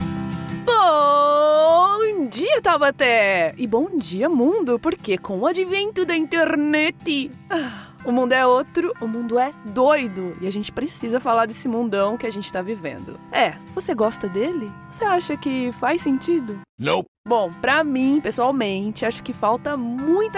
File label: portuguese brazilian podcasts, voice actor